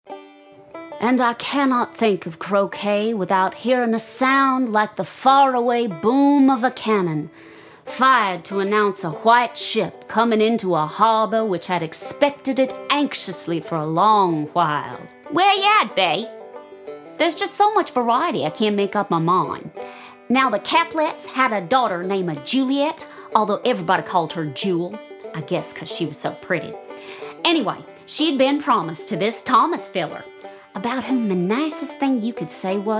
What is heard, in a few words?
Dialect North America; Dialects (North America)